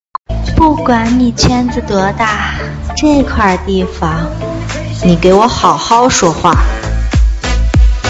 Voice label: 女声转御姐音

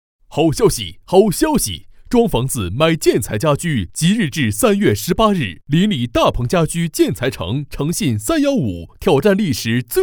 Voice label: 促销; 临澧大鹏家居建材城

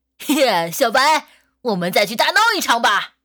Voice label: 正太音

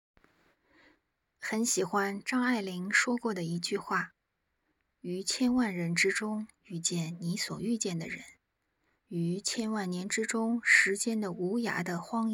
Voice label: 夜间电台文

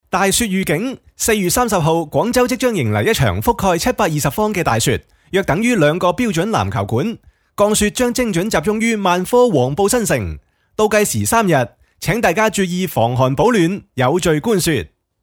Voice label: 粤语; 万科

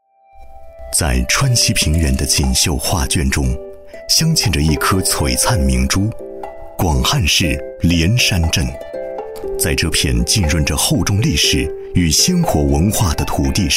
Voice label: 专题片文化旅游桃花节

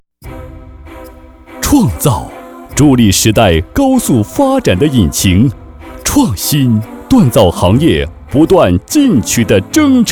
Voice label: 大气简洁 企业专题

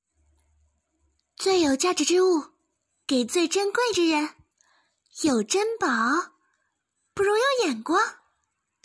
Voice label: 少女音; 西施

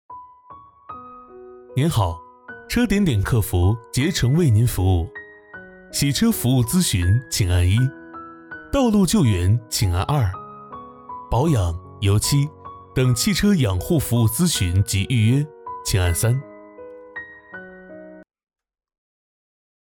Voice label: 彩铃

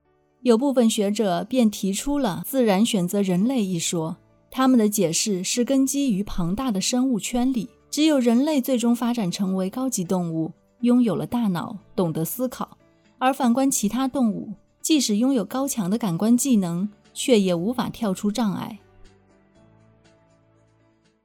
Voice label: 配音号试音

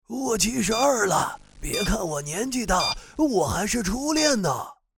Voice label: 老年

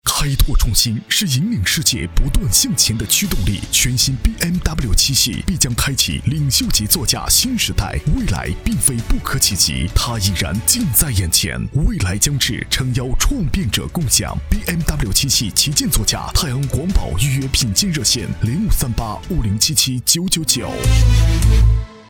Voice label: 广告小样; BMW7

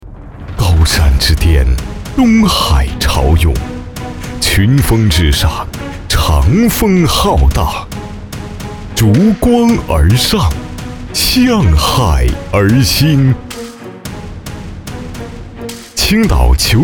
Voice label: 大气学校宣传

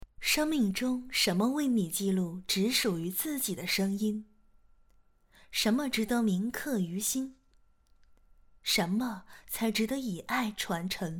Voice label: 商业 广告 配音

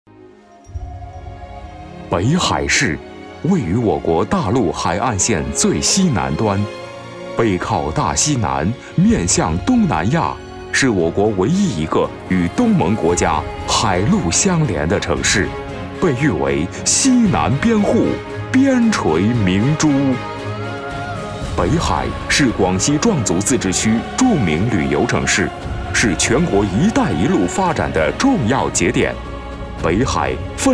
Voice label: 宣传 城市; 北海

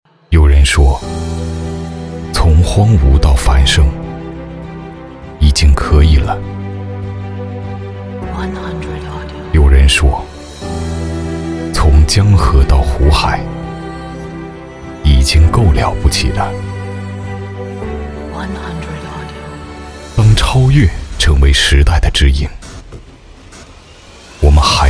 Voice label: 磁性质感走心大气

